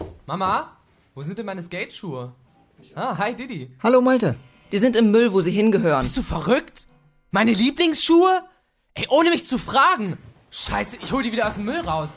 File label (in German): hoerspiel; LOFT VOICES